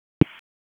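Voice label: Wake County EMS ALERT, p25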